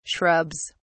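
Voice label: "shrubs", British English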